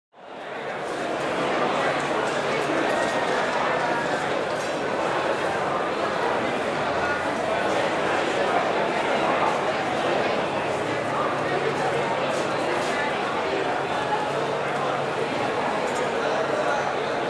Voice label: Crowd in a canteen talking